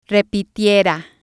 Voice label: "repitiera", spanish